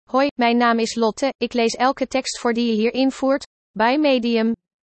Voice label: Dutch; Julia; nl-NL-Wavenet-A